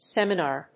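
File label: "seminar", English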